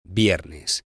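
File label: "viernes", Spanish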